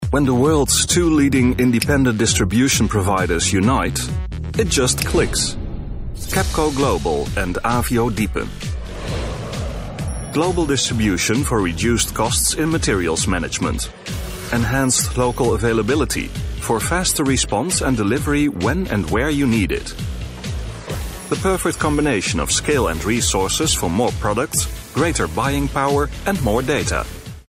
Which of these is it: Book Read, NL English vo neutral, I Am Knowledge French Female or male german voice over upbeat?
NL English vo neutral